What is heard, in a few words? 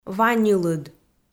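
"ва ньылыд", Komi-Zyrian, female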